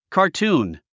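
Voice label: "cartoon", English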